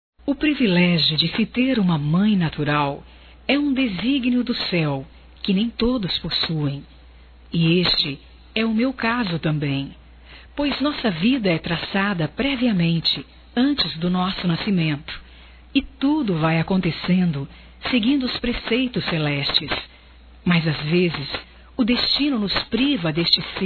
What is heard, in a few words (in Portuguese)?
mãe adotiva, telemensagens, Dia das Mães; Telemensagens 2017